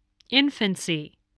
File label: "infancy", spelling voice